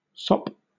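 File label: "sop", British English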